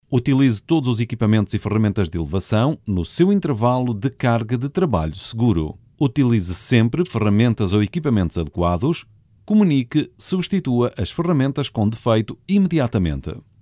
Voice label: locutor portugal portuguese voiceover elearning